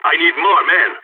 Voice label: radio need backup, balkan; vo